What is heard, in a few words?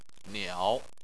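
"niao" third tone, Chinese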